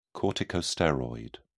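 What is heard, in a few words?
"corticosteroid", British English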